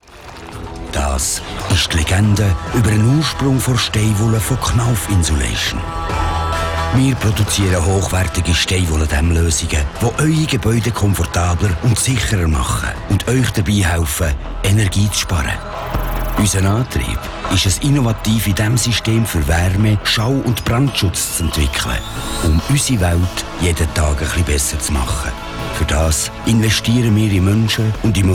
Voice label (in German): Werbung CH BE; werbung schweizerdeutsch be